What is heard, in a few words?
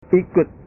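"ikut", Indonesian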